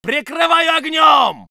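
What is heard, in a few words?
voice soviet infantry; Red Orchestra 41-45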